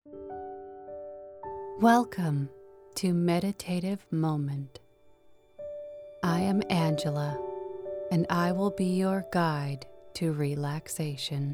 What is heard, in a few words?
meditation